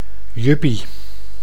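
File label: "yuppie", Dutch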